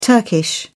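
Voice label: "turkish", British English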